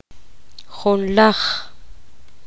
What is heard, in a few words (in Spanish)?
poqomam once